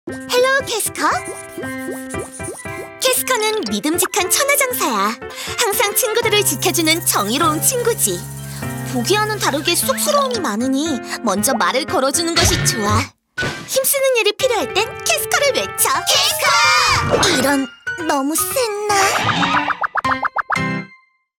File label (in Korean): 멘트 유쾌한 캐릭터 소개 밝은 10대; 버디 프랜즈